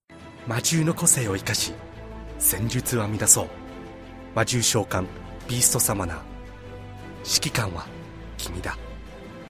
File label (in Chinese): 角色模仿, 激情讲述